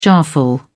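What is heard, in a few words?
"jarful", British English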